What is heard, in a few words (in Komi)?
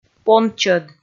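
"пончӧд", Komi, female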